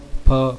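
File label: "pha", consonants of the ĐevaNāgarī script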